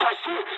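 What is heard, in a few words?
bailout mood med, speech japanese; War Thunder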